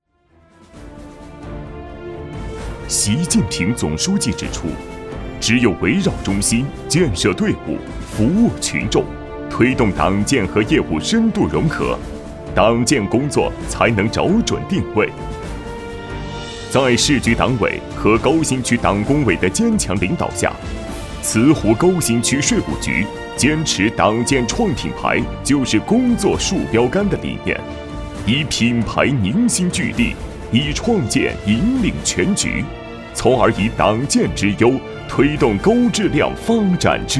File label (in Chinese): 男 汇报片 大气激情 党建工作